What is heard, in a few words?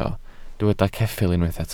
"ceffyl", Welsh